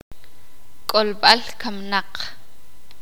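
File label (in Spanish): "panteón tumba nicho", Sakapulteko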